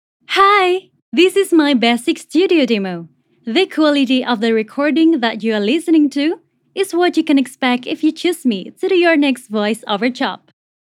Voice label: CASUAL English, The light tone she possesses brightens up the listeners